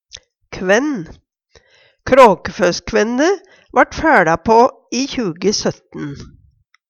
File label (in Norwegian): "kvænn", Numedalsmål